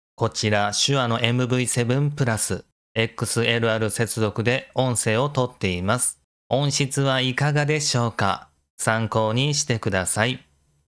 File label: shure mv7